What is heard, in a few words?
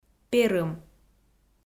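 "перым", Komi, female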